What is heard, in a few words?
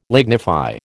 "lignify", American